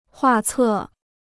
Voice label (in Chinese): "画册", Chinese